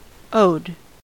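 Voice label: "owed", American English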